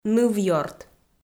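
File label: "нывъёрт", Komi, female; kpv; audio dict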